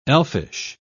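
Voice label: "elfish", British English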